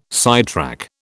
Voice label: "sidetrack", British

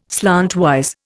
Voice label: "slantwise", Indian

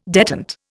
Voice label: "detent", Indian

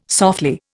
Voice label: "softly", Indian

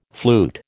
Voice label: "flute", American

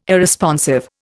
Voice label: "Irresponsive", Indian

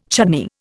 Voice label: "chutney", Indian

